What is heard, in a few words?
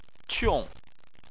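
"qiong", Chinese, tone 4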